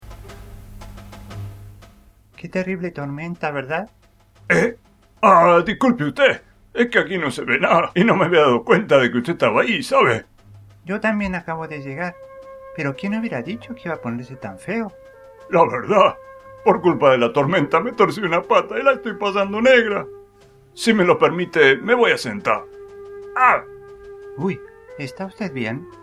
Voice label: character